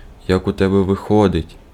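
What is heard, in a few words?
Ukrainian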